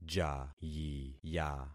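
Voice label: "jayiya", concatenated syllables; Affixing experiment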